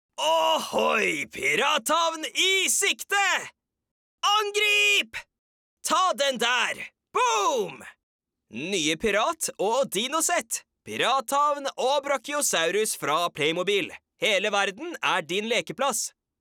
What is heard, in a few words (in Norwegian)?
LEKETØY reklame